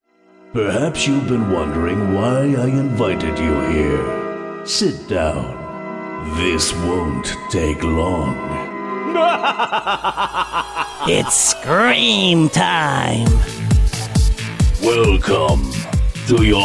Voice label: voices of horror

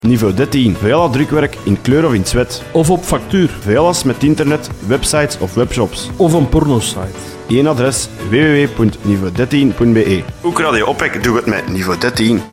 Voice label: reklam

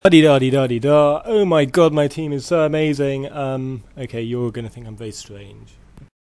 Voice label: rant

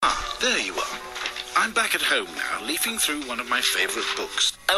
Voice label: mystery voice

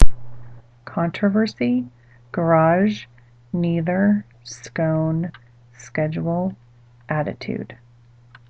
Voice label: herndon virginia female

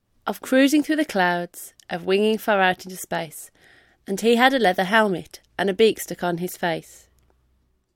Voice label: poetry

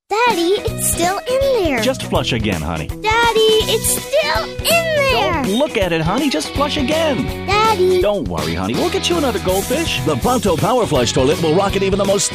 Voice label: radio ad

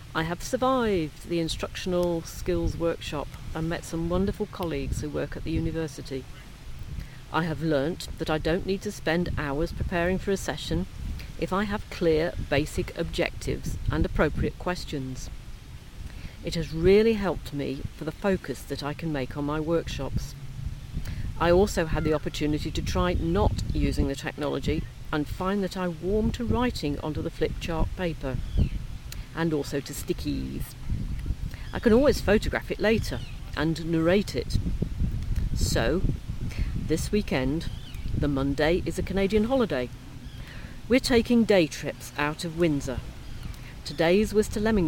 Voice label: outside broadcast

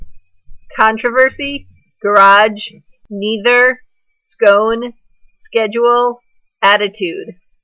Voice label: long island female

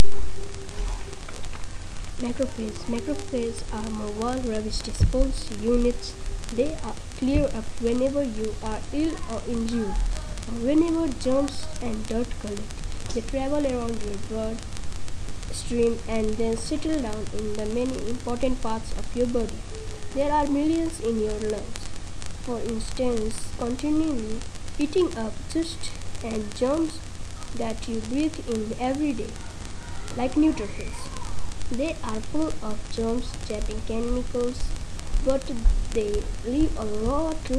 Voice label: reading aloud

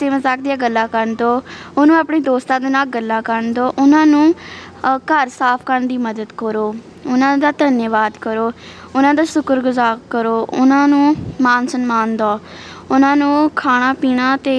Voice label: mothers day poem on punjabi

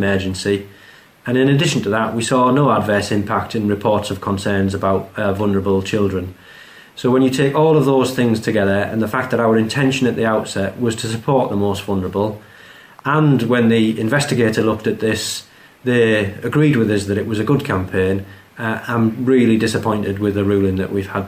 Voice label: police tv ad; surrey

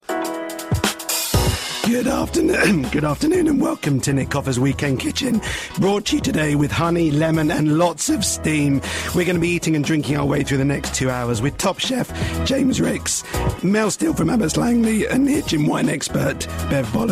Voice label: laryngitis

